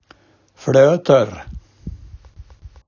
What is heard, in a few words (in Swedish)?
"flotor", grädde, daladialekt